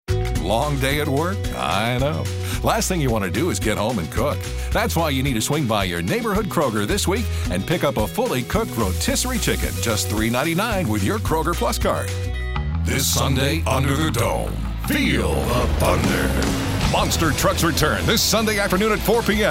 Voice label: COMMERCIAL